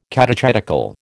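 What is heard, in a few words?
"catechetical", American English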